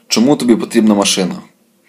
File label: dialogue, Ukrainian